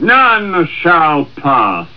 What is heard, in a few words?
male taunt; starsiege